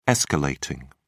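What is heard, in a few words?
"escalating", British English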